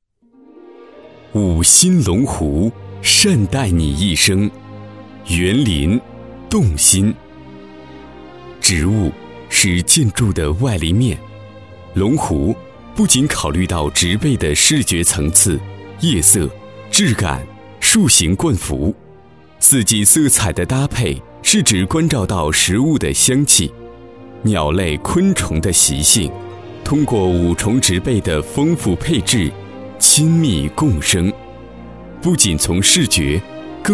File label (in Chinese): 专题大气唯美